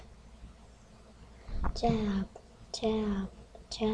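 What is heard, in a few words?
"jab", spoken word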